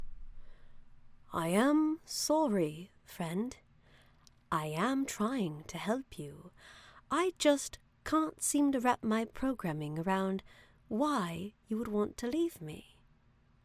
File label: RP British AI (Tight Mouthcorner)